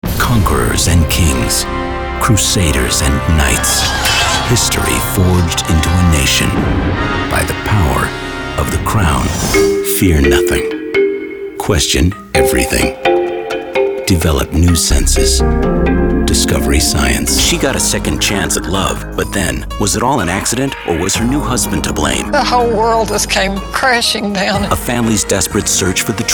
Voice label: men promos